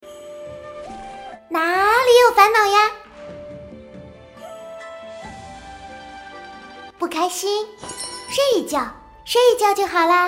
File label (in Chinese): 游戏, 超可爱萝莉